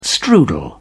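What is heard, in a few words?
"strudel", British English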